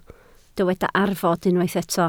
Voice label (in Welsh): "arfod", Welsh